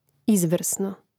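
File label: "izvrsno", Croatian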